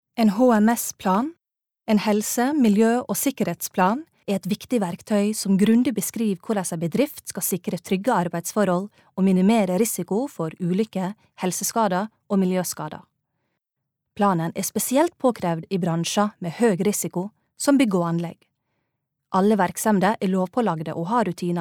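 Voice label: e-learning, norwegian, female; e-laering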